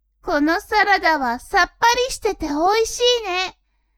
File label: woman